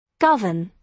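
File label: "govern", British English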